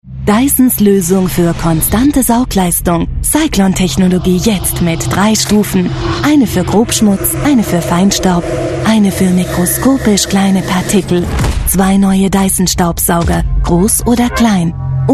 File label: germany voice over female commercial